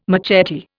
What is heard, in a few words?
"machete", Indian